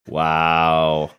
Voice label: drawn out wow

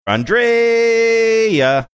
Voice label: saying and rea drawn out sing songy

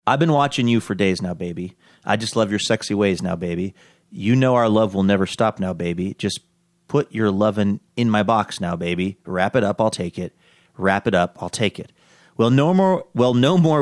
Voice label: reciting lyrics

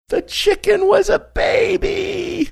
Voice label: saying the chicken was a baby funny

